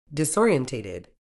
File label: "disorientated", uk